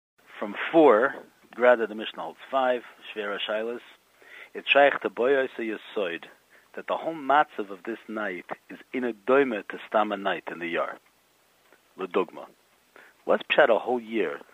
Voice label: yeshivish